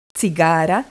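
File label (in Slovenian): "cigara", Slovenian; tdegames; ktuberling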